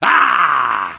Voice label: soldier tank warcry; video games; source engine; tf; taunts

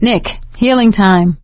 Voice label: suggest health, survivor voice; nick; Left 4 Dead 2; Source Engine; video games; producer

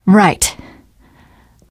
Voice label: uncertain, teengirl; survivor voice

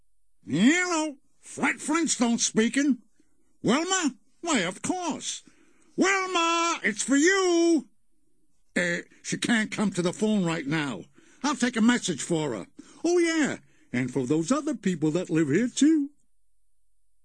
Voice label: answering machine message; cartoon sound fx; fred flintstone; hannah barbera cartoon sound fx

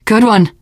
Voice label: nice shot, teen girl voice; source engine; left 4 dead 2; survivor

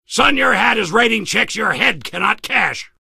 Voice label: soldier hat taunts

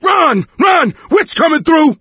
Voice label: witch chasing; voice; source engine; left 4 dead 2

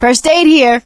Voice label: spot first aid, survivor voice; source engine; left 4 dead 2; producer

